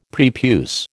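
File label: "prepuce", American English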